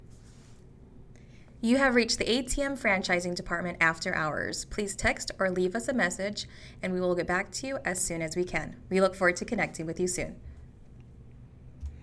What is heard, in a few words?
ivr greeting